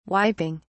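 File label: "wiping", British English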